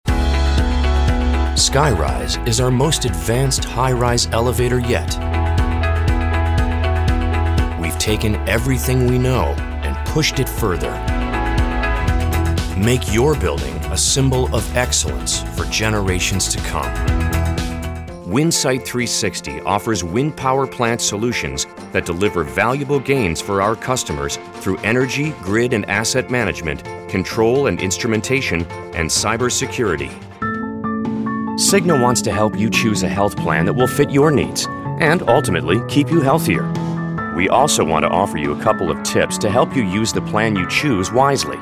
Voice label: Corporate Narration